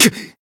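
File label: damaged, Japanese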